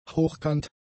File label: "hochkant", German; adjectives